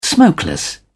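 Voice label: "smokeless", British English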